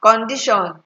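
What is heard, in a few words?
"condition", Nigerian Pidgin, female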